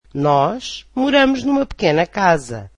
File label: portuguese we live in a small house